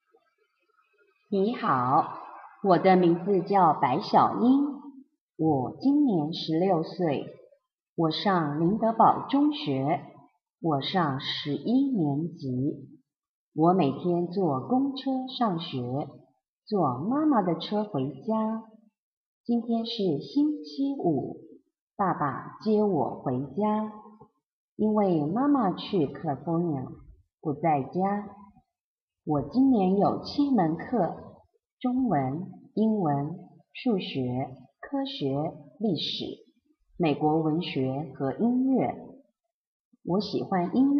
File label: reading comp exercise passage